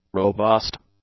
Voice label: "robust", English; adjectives